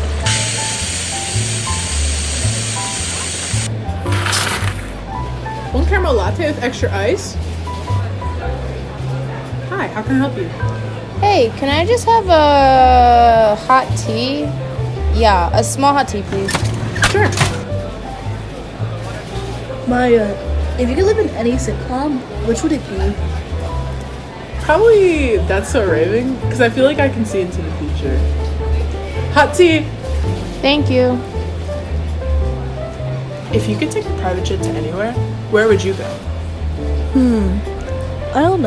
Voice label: soundscape narrative coffee cart conversations